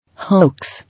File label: "Hoax", English